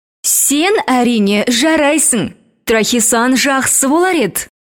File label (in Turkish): Kazakca Kadin; seslendirme